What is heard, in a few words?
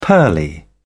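"pearly", British English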